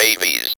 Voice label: ROB VOX; E-Lab; X Static Goldmine 1